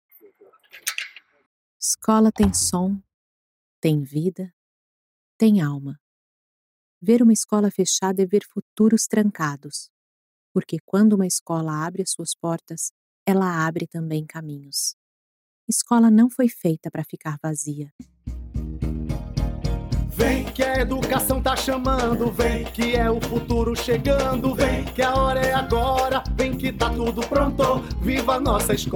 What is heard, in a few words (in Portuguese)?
SPOT VOLTA AS AULAS